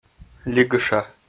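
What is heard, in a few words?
"лигыша", Komi, male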